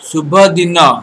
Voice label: have a nice day odia